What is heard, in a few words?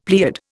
"pleiad", Indian; Dictionary